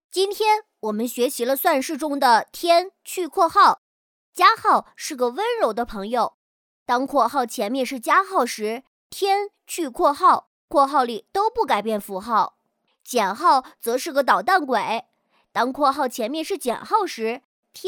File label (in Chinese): 稳重岁男中童数学讲解